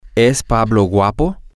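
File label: Spanish, male; is Pablo good looking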